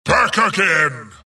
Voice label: wraith death; dota; skeleton king

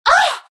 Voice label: naga pain; dota; naga siren

